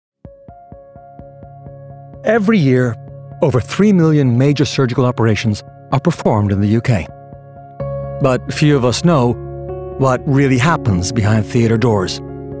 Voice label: Surgeons Documentary - Honest, Reassuring, Friendly